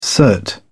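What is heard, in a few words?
"cert", British English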